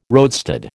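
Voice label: "roadstead", American English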